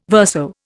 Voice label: "verso", Indian